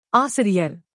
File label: "aasiriyar", tamil; texttoSpeech